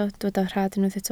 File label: "rhad", Welsh